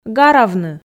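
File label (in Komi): "гаравны", Komi-Zyrian, female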